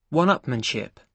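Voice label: "one upmanship" English, British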